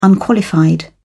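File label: "unqualified", British English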